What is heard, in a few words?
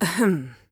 female